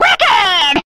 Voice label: "welcome", catoon; Voice; introductions